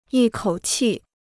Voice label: "一口气", Chinese

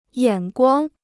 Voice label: "眼光", Chinese

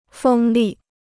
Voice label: "风力", Chinese

